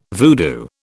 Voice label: "voodoo", British